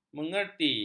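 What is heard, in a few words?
"mengerti", Malay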